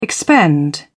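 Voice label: "expend", English, British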